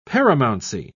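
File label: "paramountcy", British English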